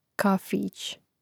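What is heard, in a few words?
"kafić", Croatian; neizvorni; mreznik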